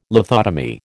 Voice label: "lithotomy", American